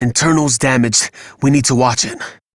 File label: Low Health Operator Voice, en; Operator Mission